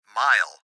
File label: Gps Voice, Male